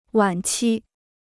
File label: "晚期", Chinese